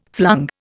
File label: "flung", Indian